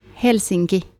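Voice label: "Helsinki", Finnish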